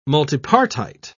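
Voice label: "multipartite", English, British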